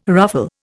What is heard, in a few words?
"ruffle", Indian